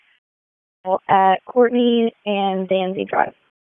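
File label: Police District Dispatch; Raleigh Police Northeast District Disp; wake; p25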